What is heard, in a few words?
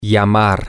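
to call, Spanish, male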